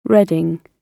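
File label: "reading", British English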